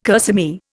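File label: "kerseymere", Indian English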